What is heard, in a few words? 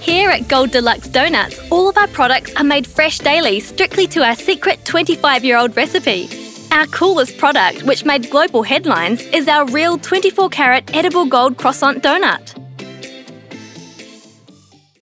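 New Zealand phone voice, female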